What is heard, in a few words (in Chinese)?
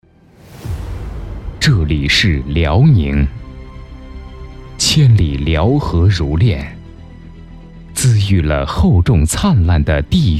城市宣传, 形象片; 辽宁